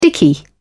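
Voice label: "dicky", British English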